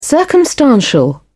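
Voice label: "circumstantial", British English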